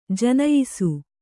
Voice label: "ಜನಯಿಸು", Kannada; janayisu; to come into existence; to be born

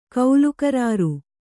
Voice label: "ಕೌಲುಕರಾರು", Kannada

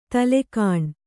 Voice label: "ತಲೆಗಾಣ್", Kannada

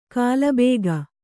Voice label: "ಕಲ್ಬಾಗೆ", Kannada

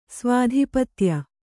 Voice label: "ಸ್ವಾಧಿಪತ್ಯ", Kannada; svādhipatya; noun

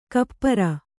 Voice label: "ಕುಪರಿ", Kannada

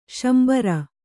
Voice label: "ಶಾಂಬರ", Kannada

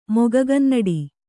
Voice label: "ಮೊಗಗನ್ನಡಿ", kannada

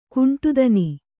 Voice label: "ಕೊಂಡಿತನ", Kannada

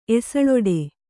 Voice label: "ಎಸಳೊಡೆ", Kannada

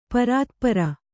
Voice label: "ಪರಾತ್ಪರ", Kannada

